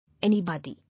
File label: "Anybody", English